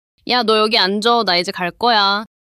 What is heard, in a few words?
neutral